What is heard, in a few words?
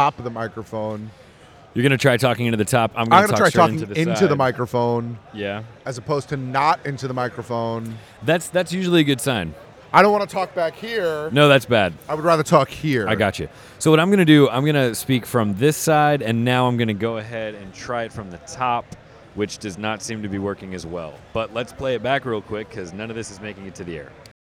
Male Voice; NYCC 2013